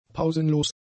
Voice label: "pausenlos", German; adjectives